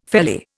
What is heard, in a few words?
"felly", Indian